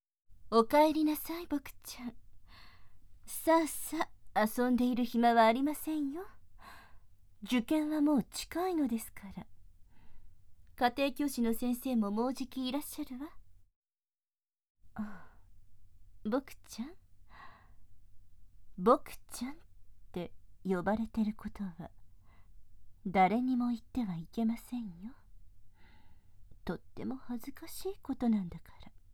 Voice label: ぬるべろ両耳舐め, ASMR; ボクちゃんは堪え性が無いわね; 【べっちょりにゅるなめ】女に興奮してはいけません

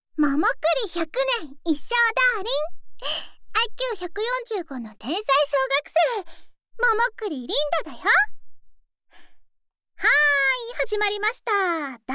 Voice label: 耳元すきすき・添い寝; ねえ…一緒に寝よ？; VTuberに転声してみた 〜山本希望→桃栗りんだ〜

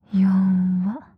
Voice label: よわ・強く・極近・右

